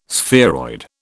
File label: "spheroid", British